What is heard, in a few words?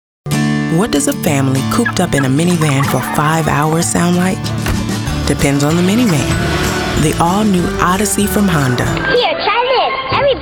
Commercials, female voices